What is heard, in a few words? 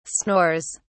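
"snores", British English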